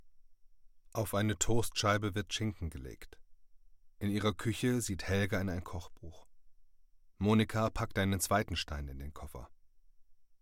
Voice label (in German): Audiodeskreption; LOFT VOICES